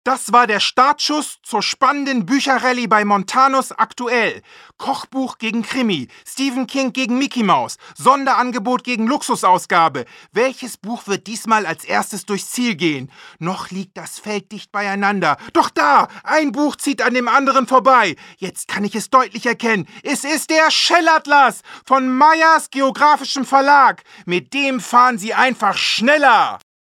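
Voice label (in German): werbung, deutsch männer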